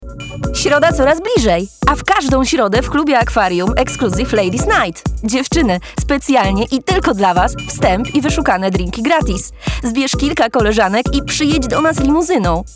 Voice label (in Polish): Reklama Radio